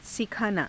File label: "sikhaanaa", hindi